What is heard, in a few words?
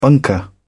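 "bunker", British English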